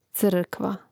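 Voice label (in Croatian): "crkva", Hrvatski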